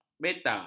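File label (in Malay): "beta", Malay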